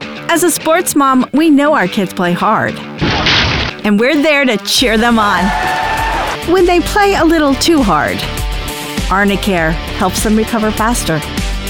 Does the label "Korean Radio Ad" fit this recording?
No